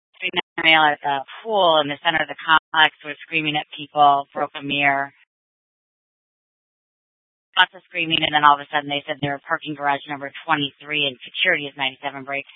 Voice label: Scottsdale PD Sth Dispatch, scanner